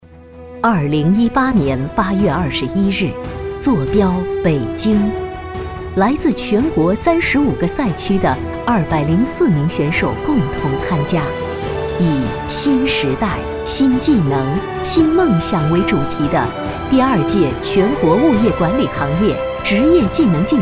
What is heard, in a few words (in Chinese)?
女 专题 大气豪迈; 北京国基